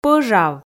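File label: "пӧжав" Komi, female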